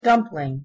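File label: "dumpling", English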